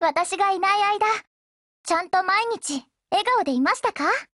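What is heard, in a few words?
OPERATION OVER Japanese, Voice; GF Alarm; Danuvia 43M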